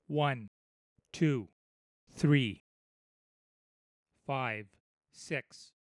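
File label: Nums 5.1; multi-channel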